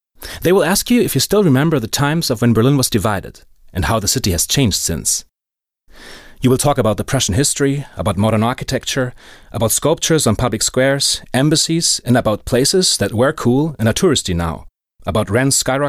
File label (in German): englisch